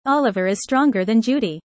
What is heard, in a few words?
user fast; how tall